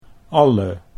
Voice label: "alle", Dutch; 'all, every'